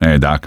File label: hey doc, male; Half-Life 2